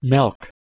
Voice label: "meolc", Anglo Saxon